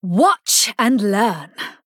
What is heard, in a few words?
Female Human Attack